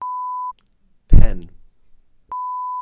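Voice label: "pen", Welsh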